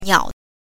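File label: "niao", Mandarin Chinese pinyin, neutral tone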